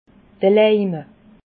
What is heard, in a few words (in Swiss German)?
"leima", alsace